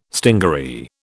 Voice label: "stingaree", British

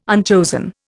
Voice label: "unchosen", Indian

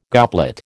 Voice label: "goblet", American

